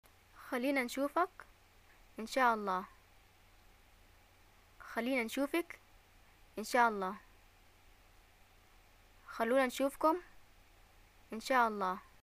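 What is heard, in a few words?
"greeting farewell", Yemeni Arabic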